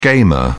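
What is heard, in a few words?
"gamer", English, British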